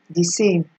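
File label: "disame", Nigerian Pidgin, female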